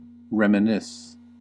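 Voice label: "reminisce", US English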